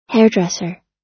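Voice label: "hairdresser", American English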